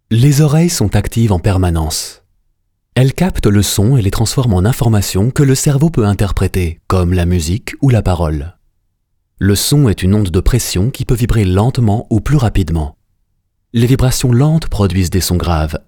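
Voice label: French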